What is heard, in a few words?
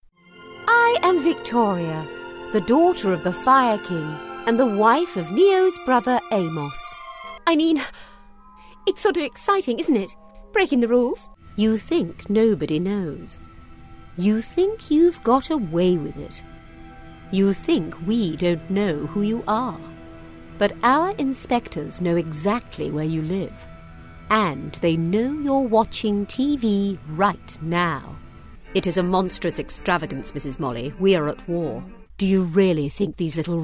RP Compilation